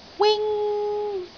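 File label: "wing1", Cantonese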